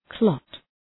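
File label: "clot", English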